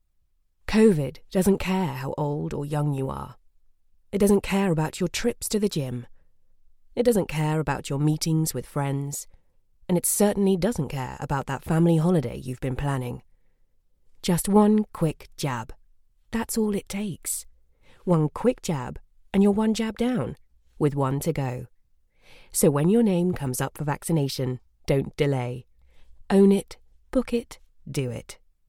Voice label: female commercial voiceover conversational credible